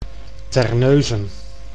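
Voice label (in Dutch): "Terneuzen", Dutch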